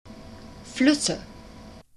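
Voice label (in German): "Flüsse", German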